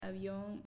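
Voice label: "Avion", Spanish, female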